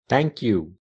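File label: "thank you", English; grade1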